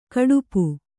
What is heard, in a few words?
"ಘಾಟುಪ್ಪು", Kannada